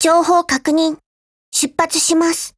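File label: OPERATIONBEGIN, Japanese; GF Alarm; HK416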